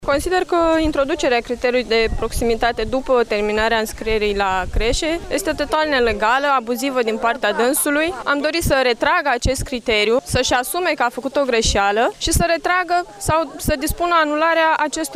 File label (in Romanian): vox pop crese